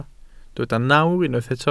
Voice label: "nawr", Welsh

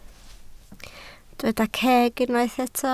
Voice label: "ceg", Welsh

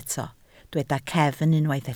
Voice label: "cefn", Welsh

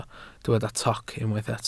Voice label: "toc", Welsh

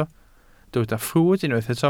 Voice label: "ffrwd" Welsh

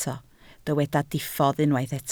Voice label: "diffodd", Welsh

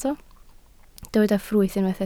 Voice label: "ffrwyth", Welsh

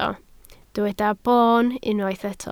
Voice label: "boon", Welsh

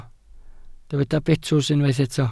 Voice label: "betws", Welsh

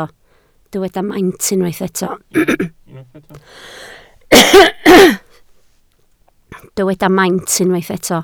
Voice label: "maint", Welsh

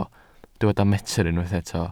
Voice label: "metr", Welsh

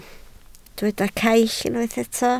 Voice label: "caill", Welsh

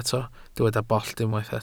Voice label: "bollt", Welsh

